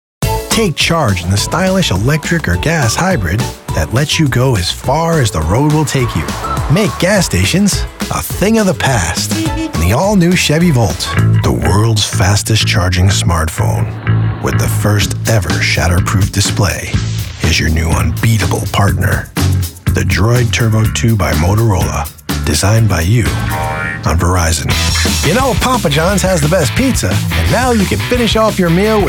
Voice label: Male Commercials